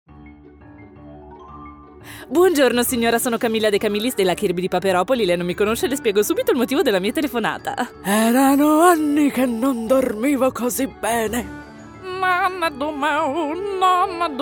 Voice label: Animation Italian